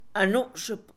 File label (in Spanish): "cansado", Mixe, Mujer; Cuerpo; INALI